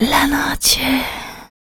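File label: vocal female, Dance Vocals, spoken words; Best Service ProSamples vol.12; AKAI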